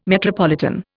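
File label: "metropolitan", English, Indian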